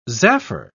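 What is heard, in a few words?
"zaffer", British English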